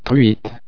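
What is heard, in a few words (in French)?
"truite", French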